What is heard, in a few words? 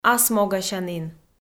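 "асмогасянін", Komi, female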